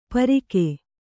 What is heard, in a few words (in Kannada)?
"ಪಾರಕ", Kannada